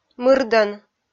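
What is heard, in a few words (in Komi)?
"мырдӧн", Komi, female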